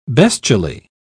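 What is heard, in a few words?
"bestially", British English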